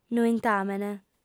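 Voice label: "nointamen" Sardinian, female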